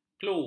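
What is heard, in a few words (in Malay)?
"klu", Malay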